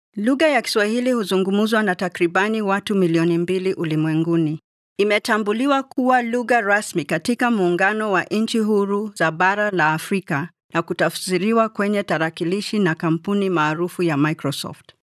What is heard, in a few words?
female swahili voice over narrative